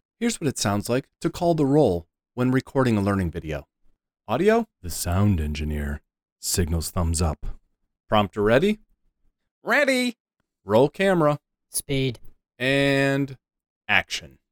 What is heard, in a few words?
Calling The Roll While Recording A Learning Video